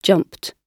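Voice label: "jumped", British English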